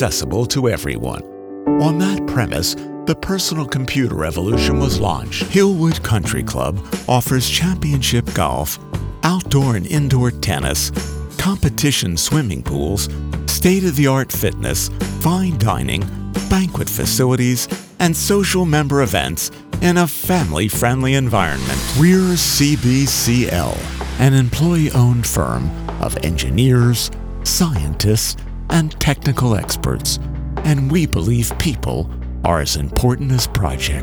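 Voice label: Corporate with Music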